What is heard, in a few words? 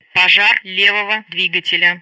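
Speech Sound Russian Common Messages; Betty; LeftEngineFire; DCS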